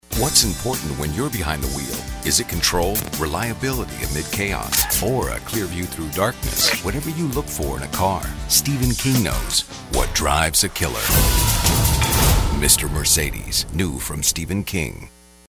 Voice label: ominous